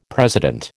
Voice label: "president", American

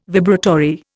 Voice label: "vibratory", Indian

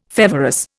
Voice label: "feverous", Indian

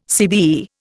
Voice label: "CBE", Indian

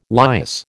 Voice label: "lias", American

